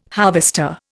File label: "harvester", Indian